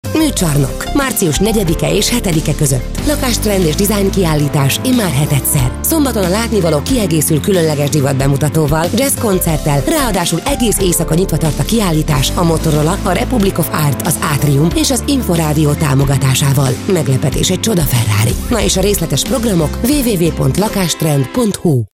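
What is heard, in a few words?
Hungarian female voice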